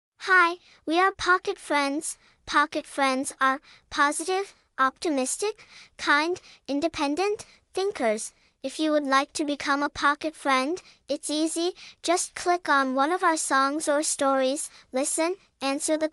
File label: Voiceover